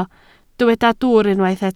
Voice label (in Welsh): "dwwr", Welsh